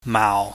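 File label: "maau", Cantonese, low falling tone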